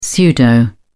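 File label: "pseudo", British English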